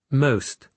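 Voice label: "most", British English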